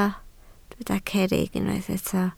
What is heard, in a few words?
"cerrig", welsh